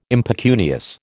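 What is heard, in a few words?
"impecunious", American English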